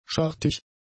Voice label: "schartig", german; adjectives